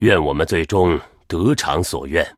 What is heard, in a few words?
GREETINGS, zh